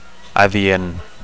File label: "adient", Catalan; appropriate / suitable